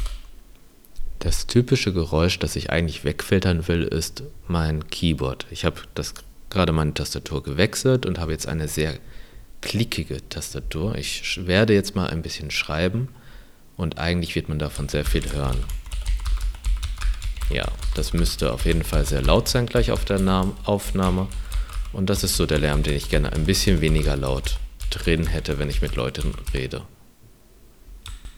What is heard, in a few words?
test unfiltered with noise; rnnoise